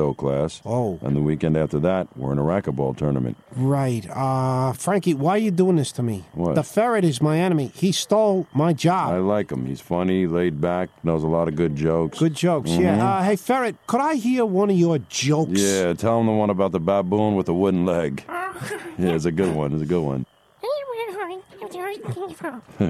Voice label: Radio Commercials - Budweiser Beer

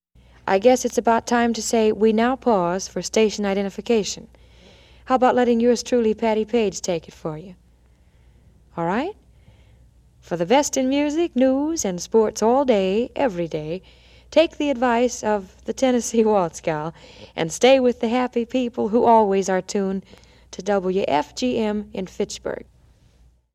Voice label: Radio Commercials - Radio Liner Station ID; WFGM; Pittsburg

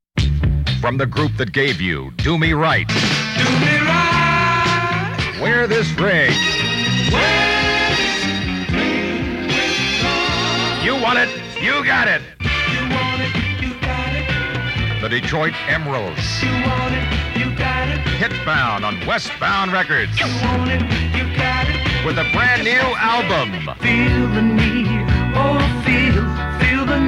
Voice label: Radio Commercials; 1972

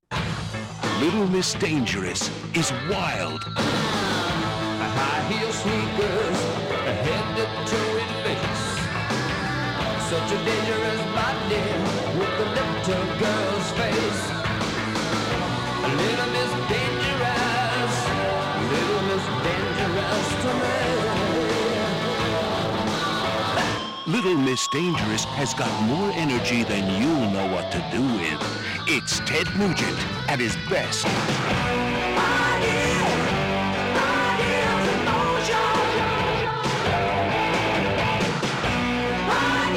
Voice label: Radio Commercials

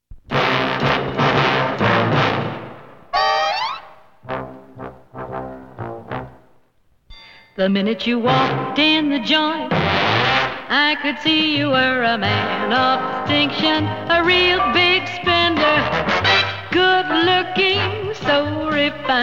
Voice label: Tv Commercials - Muriel Cigars

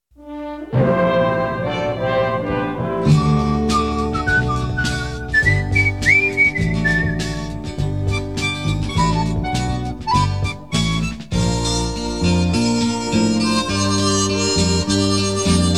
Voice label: TV Commercials - Old Spice cologne; The Mariner

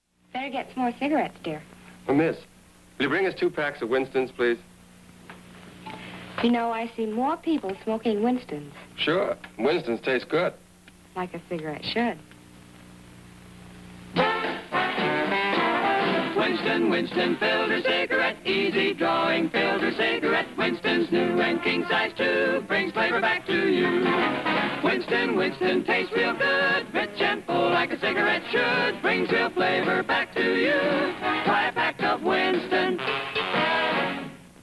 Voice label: Old Commercial; WINSTON

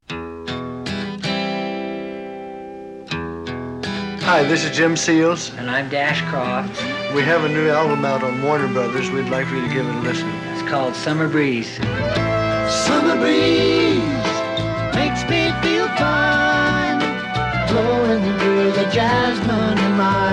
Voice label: Radio Commercials; 1972

